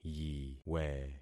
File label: "yiwe", concat syllables; Affixing experiment